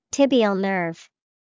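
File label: "tibial nerve", English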